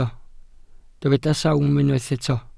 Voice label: "sawm", welsh